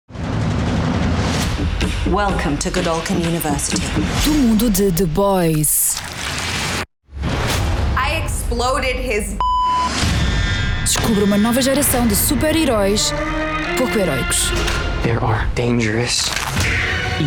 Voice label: Spot